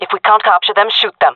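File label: round start, jungle female; vo; agents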